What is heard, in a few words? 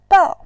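"bao", Mandarin Chinese, tone 4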